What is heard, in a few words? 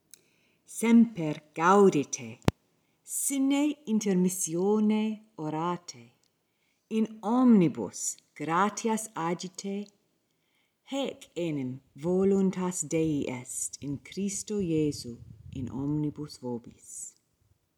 Ecclesiastical Pronunciation; 1 Thessalonians 5:16-18